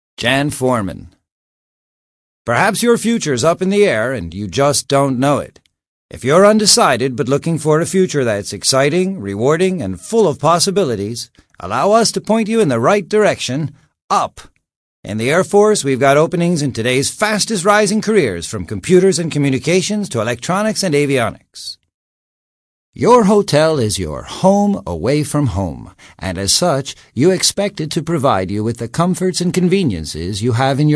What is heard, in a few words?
english male voices american accent